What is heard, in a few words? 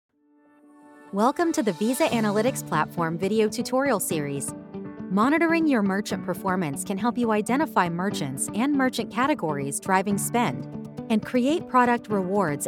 corporate narration